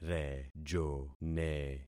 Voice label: "rejone", concat syllables; Affixing experiment

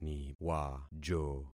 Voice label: "niwajo", concat syllables; Affixing experiment

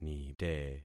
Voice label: "nite", concat syllables; Affixing experiment

